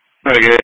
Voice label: EMS Dispatch